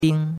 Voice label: "ding1", chinois; Cours de Chinois

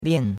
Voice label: "lian4", chinois; Cours-de-Chinois

